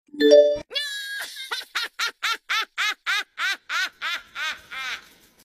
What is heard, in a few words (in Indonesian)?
Nada Dering Notifikasi WA Lucu; Monkey D Luffy